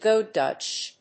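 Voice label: "go Dutch", English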